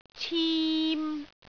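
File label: "cim3", Cantonese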